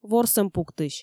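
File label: "ворсӧмпуктысь", Komi, female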